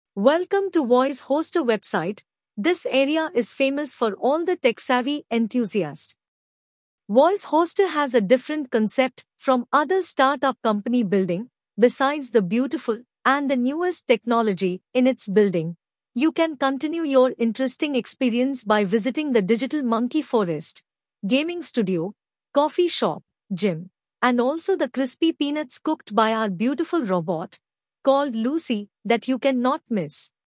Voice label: AI Female English India